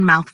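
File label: "Mouth", pronunciation; body parts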